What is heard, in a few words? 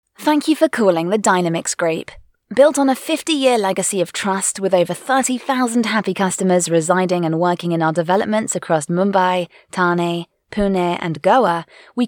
UK Female; Studio Nonagram